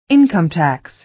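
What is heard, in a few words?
"income tax", American English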